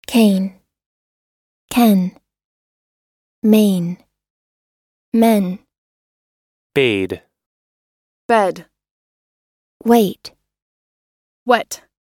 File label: ei Minimal Pairs